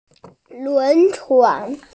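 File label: "lún chuán", Mandarin Chinese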